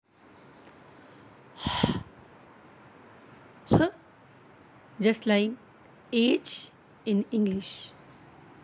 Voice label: "hah", Arabic; ه; Like H